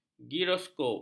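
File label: "giroskop", Malay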